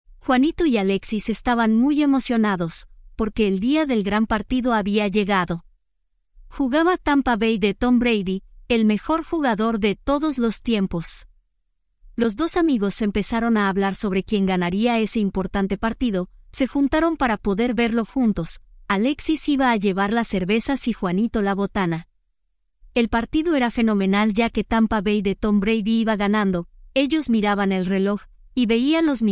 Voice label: Audio Story to learn Spanish; super bowl